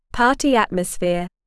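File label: "party atmosphere", English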